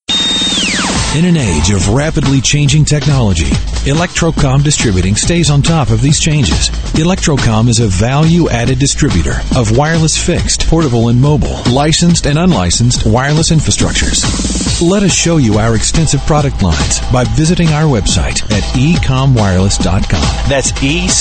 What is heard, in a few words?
Electro Comm